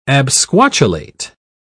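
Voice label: "absquatulate", British English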